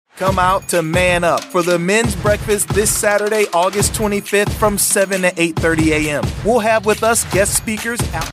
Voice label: american voiceover events